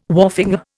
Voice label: "wharfinger", Indian